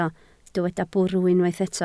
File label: "bwrw", Welsh